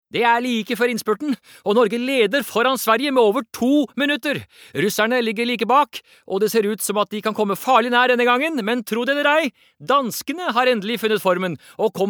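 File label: Norwegian dub commentator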